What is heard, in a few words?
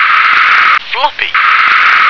"floppy", English